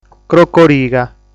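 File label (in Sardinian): "Crocoriga", Sardinian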